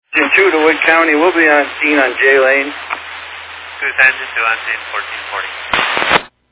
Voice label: Fire Dispatch